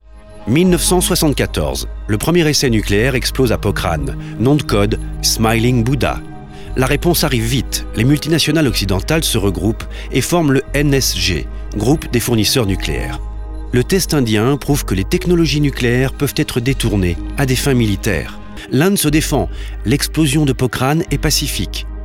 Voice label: DOCU Journaliste; INDE

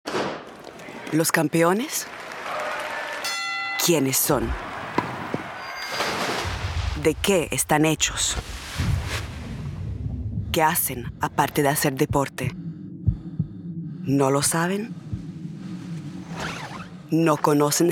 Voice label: Voix Espagnol grave et suspense; Trace Sports

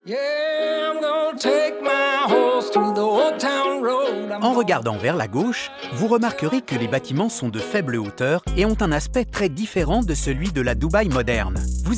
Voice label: audioguide; DUBAI RED ROUTE

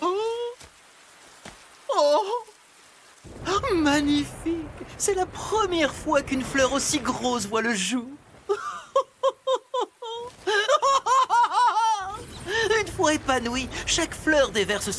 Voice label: Méchant flamboyant avec rire crispant; My Hero Academia; Crunchyroll

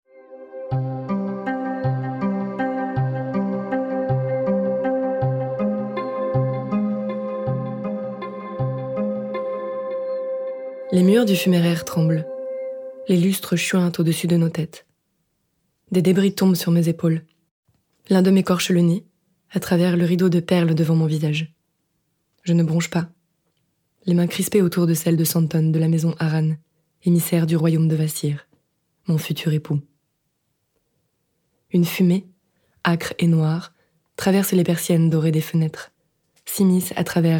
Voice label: Narration Ouverture; La voie de lencens

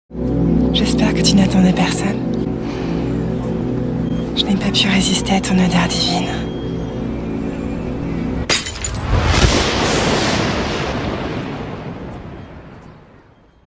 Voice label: doublage; AXE

